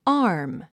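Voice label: "arm", English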